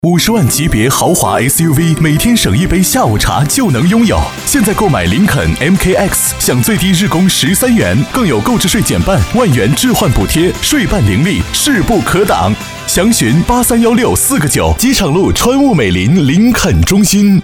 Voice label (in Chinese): 广告; 美林林肯